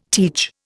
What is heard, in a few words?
"teach", Indian